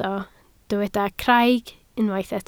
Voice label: "craig", Welsh